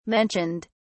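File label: "mentioned", British English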